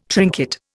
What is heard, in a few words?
"trinket", Indian